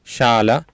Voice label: "Saala", Sanskrit